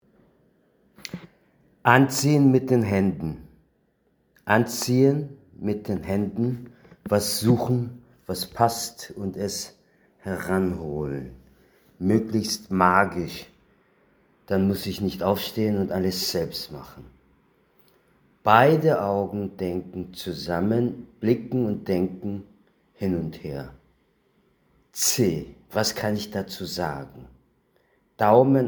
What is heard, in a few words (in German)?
deutsch